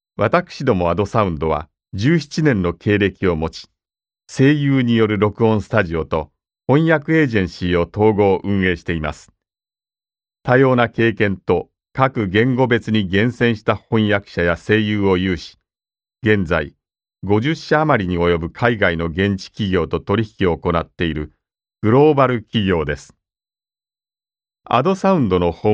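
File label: Japanese, male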